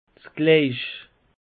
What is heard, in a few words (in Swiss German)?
"gleich", alsace; peche